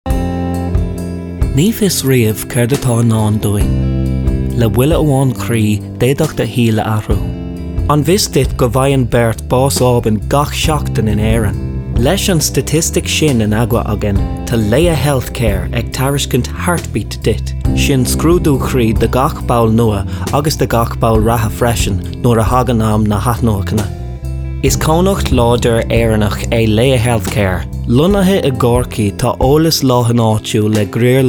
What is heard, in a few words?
Irish Gaelic